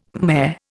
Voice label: "Khmer", Indian